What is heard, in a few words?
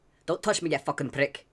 Male Beggings